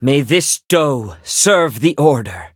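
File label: levelup, English; Cookie Run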